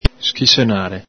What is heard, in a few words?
"schisenare", Veneto